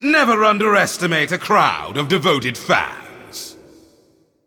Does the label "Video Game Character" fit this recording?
No